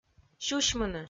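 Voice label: "сюсьмыны" Komi, female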